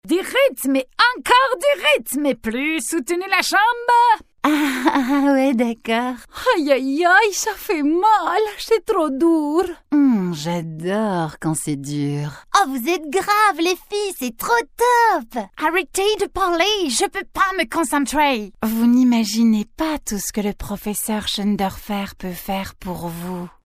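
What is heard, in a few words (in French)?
Belgian French, female, commercial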